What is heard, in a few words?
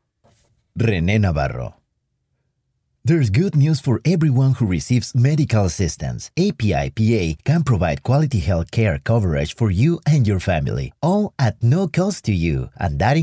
english spanish accent